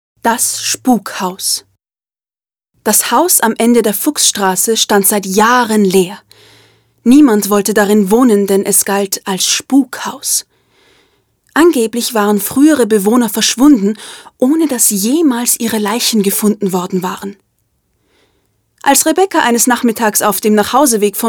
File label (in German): Hörspiel (DE); Das Spukhaus